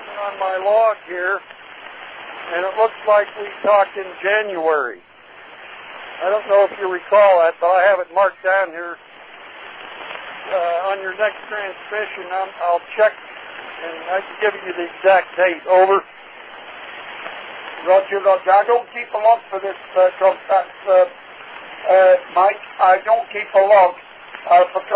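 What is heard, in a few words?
QSO between Malta and operator in the USA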